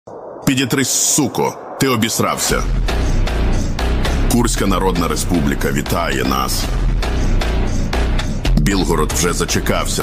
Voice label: ukrainian

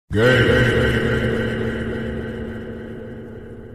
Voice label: earrape

